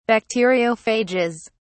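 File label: "bacteriophages", British English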